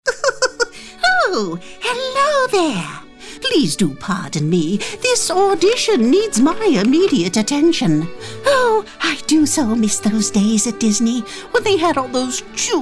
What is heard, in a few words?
character